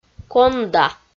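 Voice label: "конда", Komi, female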